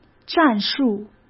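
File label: "战术" Mandarin Chinese; chinese flashcards; hsk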